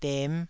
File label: "dem5", Taiwanese syllable